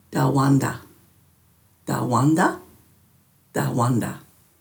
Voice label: "dawandha", Dhurga